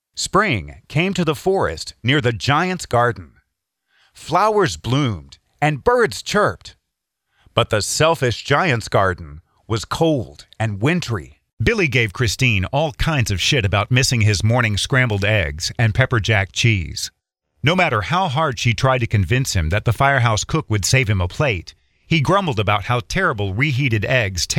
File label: Audio Book Narration